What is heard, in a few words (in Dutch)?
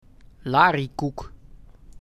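"Lariekoek", Dutch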